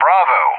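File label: "Bravo", English; JTAC; Alphabet; DCS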